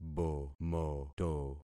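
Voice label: "bomoto", concatenated syllables; Affixing experiment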